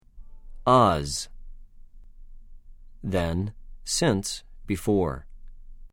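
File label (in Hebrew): "אָז", Hebrew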